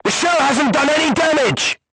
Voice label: voice message armor not pierce, crew tanks portuguese